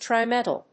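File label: "TRI-METAL", English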